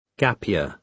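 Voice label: "gap year", British English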